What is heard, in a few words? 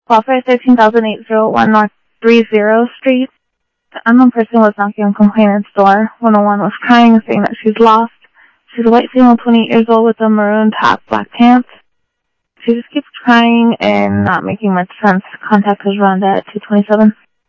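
Phoenix police department, scanner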